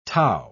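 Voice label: "tau", British English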